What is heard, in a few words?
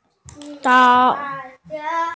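"sao3", Mandarin Chinese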